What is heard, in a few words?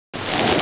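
"huang4", Mandarin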